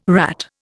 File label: "rat", Indian